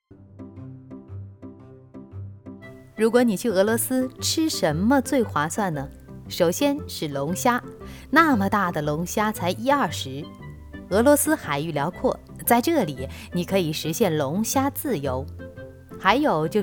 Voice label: 宣传类 产品 短视频 介绍

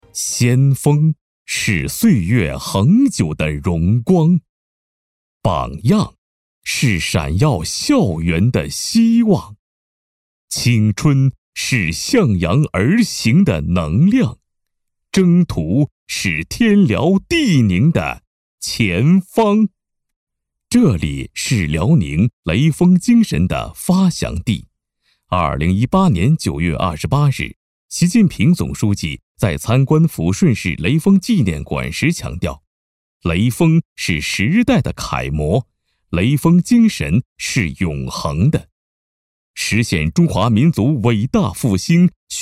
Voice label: 无背景音乐; 雷锋

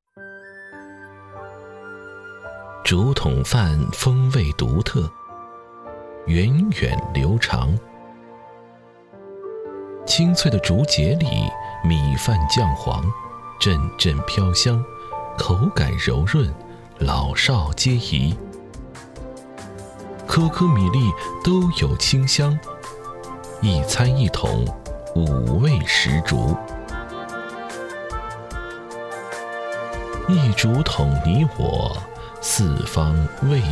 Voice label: 舌尖风格

